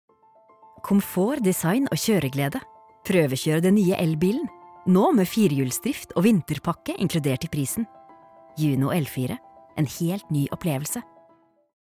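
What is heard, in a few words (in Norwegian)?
Norwegian reklame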